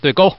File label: Man